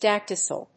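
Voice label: "dactylus", English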